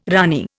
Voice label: "ranee", Indian